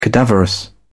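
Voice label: "cadaverous", British English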